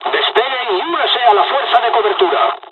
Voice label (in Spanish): takeoff, dialogs spanish; midway; War Thunder